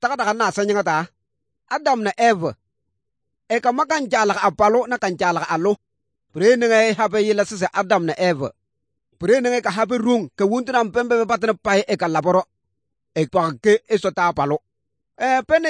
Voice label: good news, kabiye bible